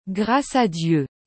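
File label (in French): "Grace a Dieu", French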